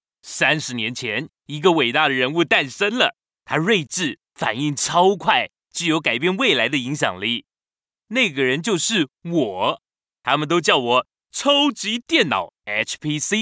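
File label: chinese mandarin male character; voice demos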